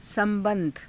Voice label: "sa^ba^dha", hindi

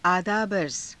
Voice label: "aadaaba arza", Hindi; こんにちは（イスラム教徒の挨拶）; आदाबअर्ज़

